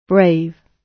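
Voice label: "brave", British English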